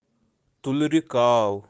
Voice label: "tulri kaav", kashmiri; kashmiri birds

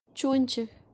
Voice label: "chonchi" ladle kashmiri

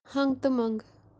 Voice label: "hangutumangu" kashmiri all of a sudden

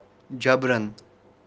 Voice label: "jabran" forcibly kashmiri

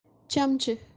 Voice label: "chamchi" spoon tablespoon kashmiri

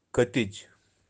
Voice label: "katij" swallow, Birds of kashmir

